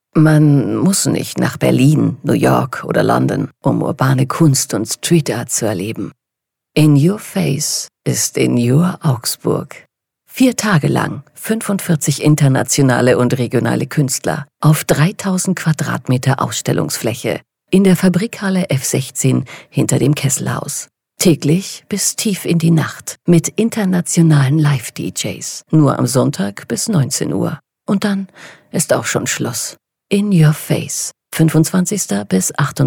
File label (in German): Radiowerbung; Ausstellung